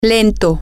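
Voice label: slow, Spanish, female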